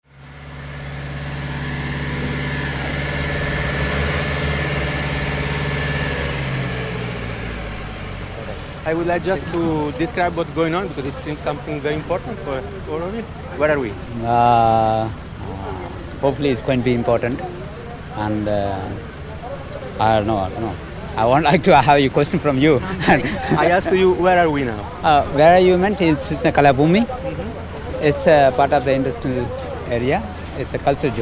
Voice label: interview soundscape english